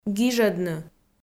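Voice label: "гижӧдны", Komi, female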